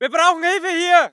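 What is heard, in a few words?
voice german infantry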